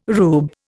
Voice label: "rube", Indian